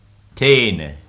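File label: "tene", Old English, male; te:nə; tene, tien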